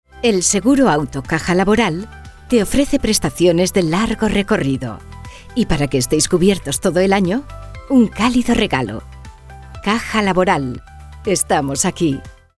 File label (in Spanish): Espanol Espana Femenina Adulta